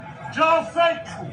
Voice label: Guy rants at FIFA officials; Schafseckel

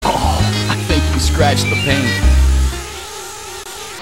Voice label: ahhhh i think you scratched the paint

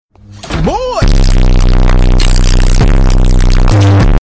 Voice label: boi sound effect

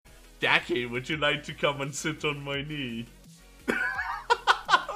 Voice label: creepy santa

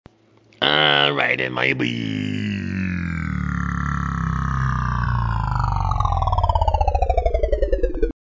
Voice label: robot agony

